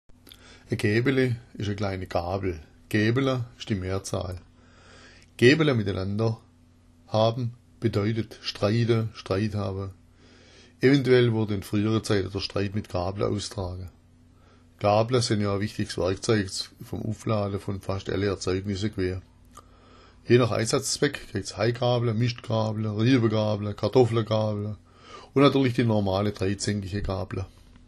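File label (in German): gaebele, schwäbisch; Gäbela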